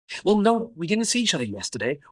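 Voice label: Voice Acting es M, Spanish, male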